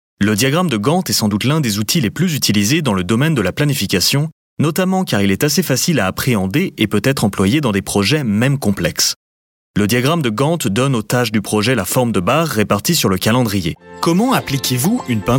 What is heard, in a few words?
french male elearning